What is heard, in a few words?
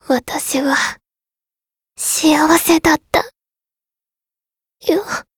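Voice retire, Japanese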